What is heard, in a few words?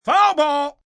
Sports Foul Ball, umpire, male, baseball; Talking Sound Effects